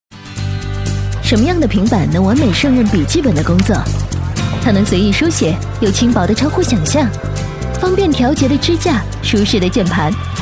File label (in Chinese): 女 广告 互联网 轻快; 微软surface